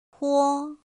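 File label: "pō", Mandarin Chinese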